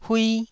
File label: "hui1", taiwanese